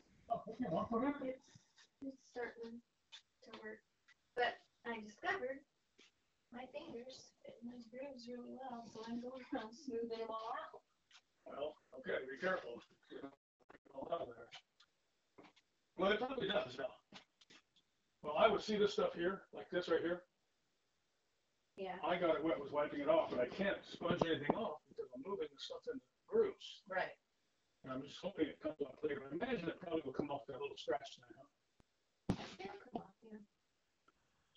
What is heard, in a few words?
Voicemail